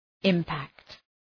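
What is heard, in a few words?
"impact", English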